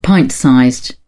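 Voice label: "pint-sized", British English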